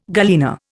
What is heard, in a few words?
"galena", Indian